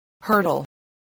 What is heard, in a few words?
"hurdle", voice spelling